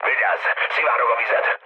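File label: Hungarian damaged water mood low, speech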